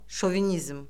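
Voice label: "şovinizm", Azerbaijani; Orfoqrafiya Lüğəti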